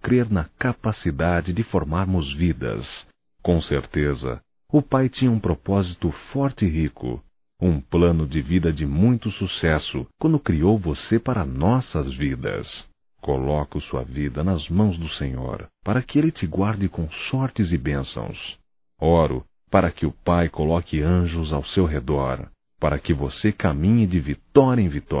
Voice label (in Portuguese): Aniversário Filha Masculino; Aniversarios; Telemensagens 2017